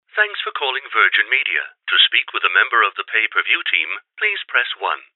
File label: IVR